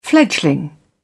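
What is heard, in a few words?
"fledgling", British English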